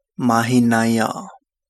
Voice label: Kapingamarangi; bright

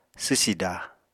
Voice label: "swsida", Apatani

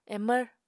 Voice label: "emvr", Apatani

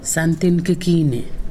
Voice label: "toenail", Pingelapese

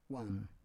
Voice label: "wam", Roon

